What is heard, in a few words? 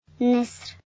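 "eagle", Arabic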